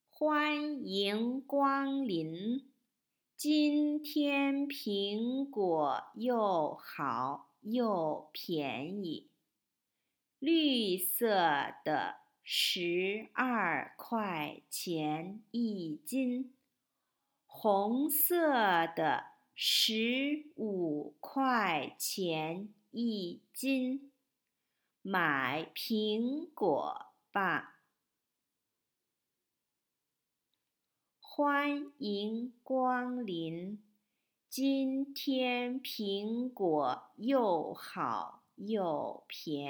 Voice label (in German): Chinesisch Hoerverstehen Durchsage